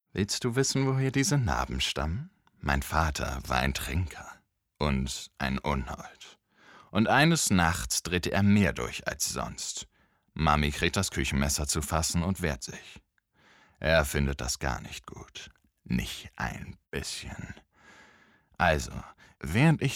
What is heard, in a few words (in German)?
Monolog DE; Joker